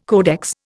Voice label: "codex", Indian